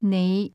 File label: "you", Cantonese